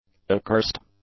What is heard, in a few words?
"accurst", English; adjectives